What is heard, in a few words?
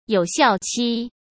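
"有效期", Mandarin Chinese; hsk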